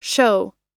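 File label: "show", US English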